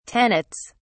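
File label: "tenets", British English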